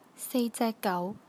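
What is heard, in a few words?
"jek3 gau2", Cantonese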